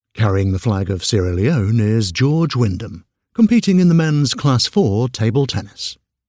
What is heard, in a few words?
voiceover character olympics commentator